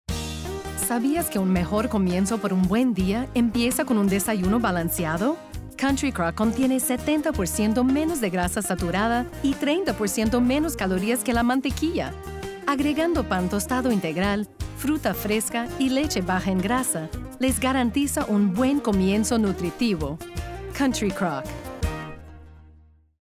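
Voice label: Spanish Latin American Commercial Natural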